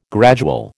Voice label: "gradual", American

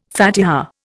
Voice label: "Fatiha", Indian

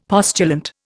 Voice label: "postulant", Indian; Dictionary

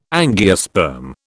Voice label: "angiosperm", British

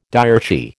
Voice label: "diarchy", American

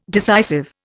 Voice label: "decisive", Indian

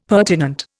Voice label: "pertinent", Indian; Dictionary

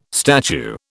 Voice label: "statue", British

